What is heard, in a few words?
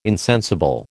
"insensible", American